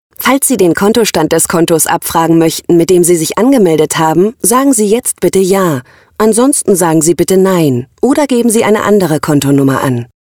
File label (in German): Telefonansage